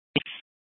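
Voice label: police dispatch radio, scanner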